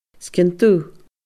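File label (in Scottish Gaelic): "sgian dubh", Scottish Gaelic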